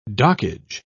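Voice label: "dockage", British English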